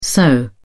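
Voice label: "soh", British English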